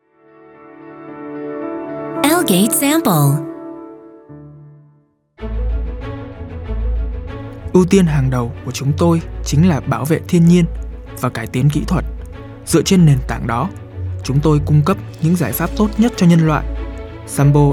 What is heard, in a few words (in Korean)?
베트남 남 차분한 톤 호치민 억양